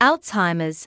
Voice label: "Alzheimer's", medical english